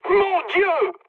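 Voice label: French trick mood high; War Thunder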